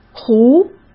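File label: "壶" Mandarin Chinese; chinese-flashcards; hsk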